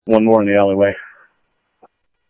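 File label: Sheriff West County, scanner audio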